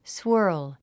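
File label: "swirl", 英単語 発音; swə́ːrl スワール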